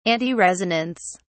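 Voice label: "antiresonance", British English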